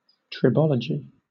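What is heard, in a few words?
"tribology", British English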